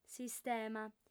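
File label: "sistema", female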